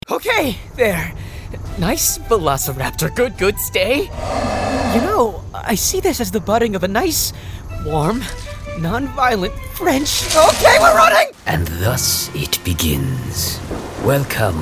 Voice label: Character